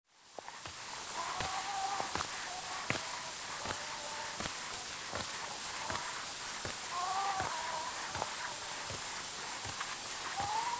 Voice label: Zulu Recordings; Father Franz Mayr